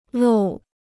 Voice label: "漏", Chinese

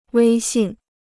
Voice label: "威信", Chinese

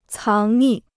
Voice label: "藏匿", Chinese

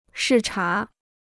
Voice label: "视察", Chinese

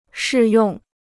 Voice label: "适用", Chinese

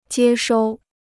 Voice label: "接收", Chinese

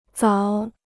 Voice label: "枣", Chinese

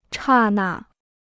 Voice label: "刹那", Chinese

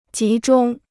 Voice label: "集中", Chinese

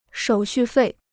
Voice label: "手续费", Chinese

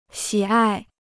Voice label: "喜爱", Chinese

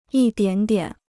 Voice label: "一点点", Chinese

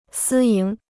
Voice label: "私营", Chinese

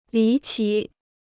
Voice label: "离奇", Chinese

